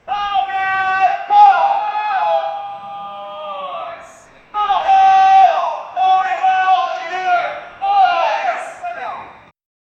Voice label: authoritative umpire voic, tennis